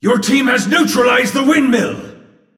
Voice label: Announcer; Neutralize Windmill Ally